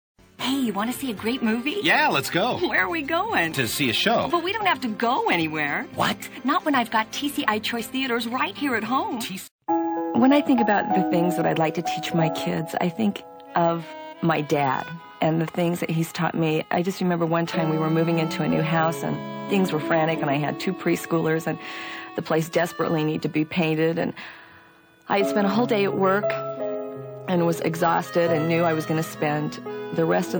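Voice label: commercial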